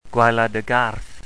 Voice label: "gwaelodygarth", wales

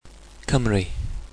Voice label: "cymru", wales

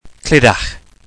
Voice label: "clydach", wales

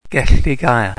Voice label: "gelligaer", wales

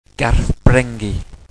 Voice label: "garthbrengi", wales

